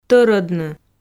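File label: "тӧрӧдны", Komi, female; audio dict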